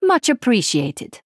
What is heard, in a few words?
Female Draenei Thanks